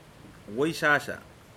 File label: "Red", Pingelapese